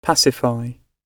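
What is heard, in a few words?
"pacify", British English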